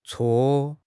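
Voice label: "cuo4", Chinese; HSK; HSK2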